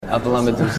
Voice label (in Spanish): hablame dulce